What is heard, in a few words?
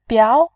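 "biao", Mandarin Chinese, tone 2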